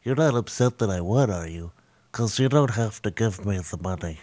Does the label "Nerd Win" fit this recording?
Yes